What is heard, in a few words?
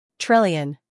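"scandal", American; trillion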